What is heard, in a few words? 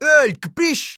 chicago gangster; HEDZReloaded